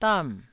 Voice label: "dam4", Taiwanese syllable